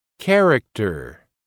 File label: character